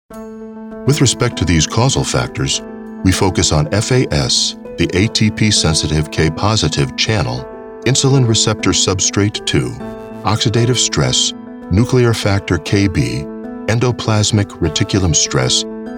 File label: Medical Narration Demo